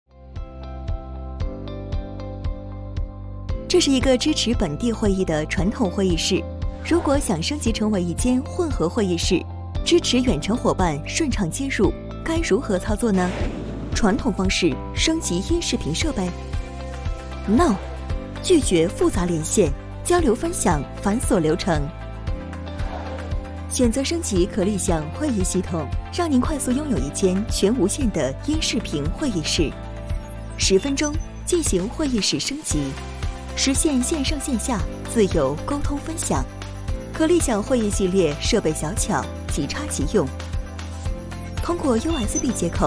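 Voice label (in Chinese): 产品解说会议系统; 可立享会议系统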